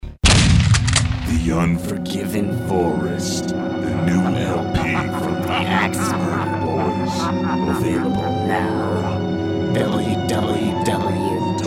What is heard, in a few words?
Radio Commercial; The Unforgiven Forest